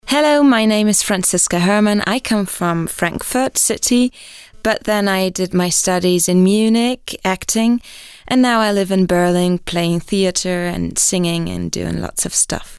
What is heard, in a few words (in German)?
englische Vorstellung